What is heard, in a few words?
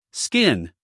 "skin", US male